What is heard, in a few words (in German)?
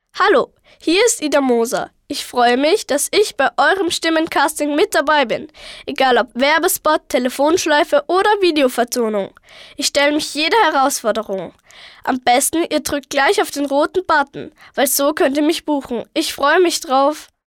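Kinder Neutral, Kinderstimmen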